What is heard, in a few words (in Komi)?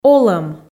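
"олӧм", Komi, female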